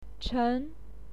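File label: "chen", Mandarin Chinese, second tone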